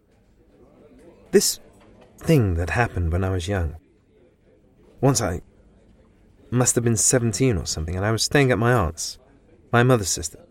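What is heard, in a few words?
English UK Narrative; GoLocalise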